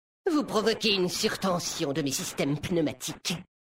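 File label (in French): French Homme Flirt; Mecagnome; Surtension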